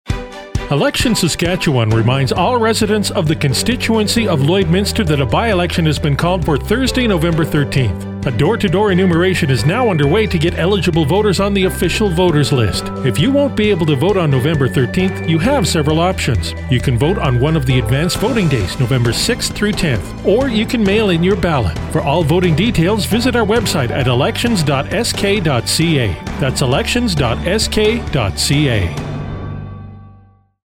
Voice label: Radio spot